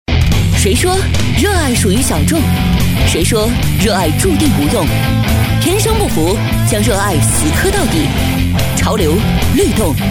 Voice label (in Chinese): 女 独白 独立骄傲 潮流个性; 谁说热爱属于小众？